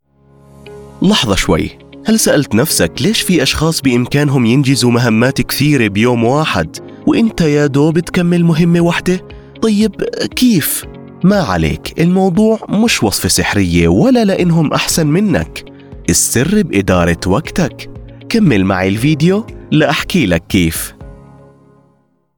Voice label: Explainer Conversational Authentic Jordanian Arabic; Time Management